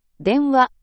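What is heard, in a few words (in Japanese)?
"denwa", Japanese; N5 level words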